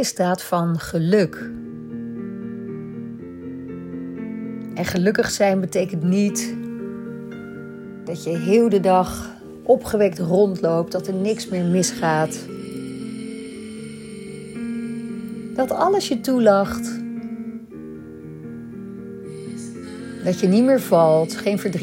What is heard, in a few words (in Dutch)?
Meditatie adem kou; Dag 6; naar geluk; adem koudetraining